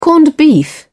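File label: "corned beef", British English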